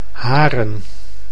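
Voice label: "Haren", Dutch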